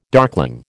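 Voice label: "darkling", American English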